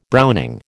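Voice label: "browning", American English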